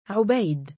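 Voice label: "3ubayd" male, Arabic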